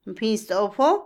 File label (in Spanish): libro, Otomí, mujer; Habla; INALI